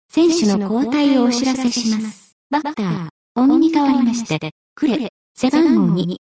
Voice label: normal rich echo announce